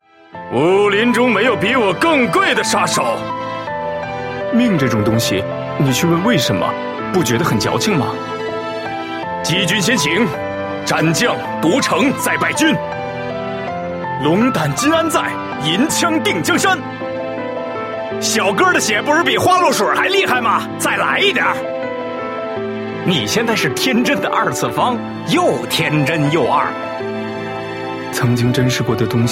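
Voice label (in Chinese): male, qingnian (young man)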